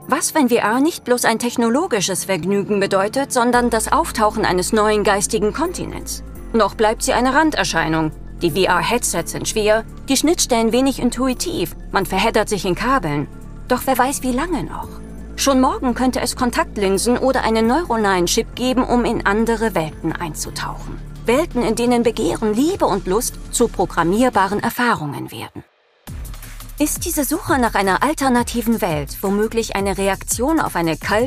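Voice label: german documentaries, female; Quente, Autêntica, Versátil; Atriz de Voz Alemã Profissional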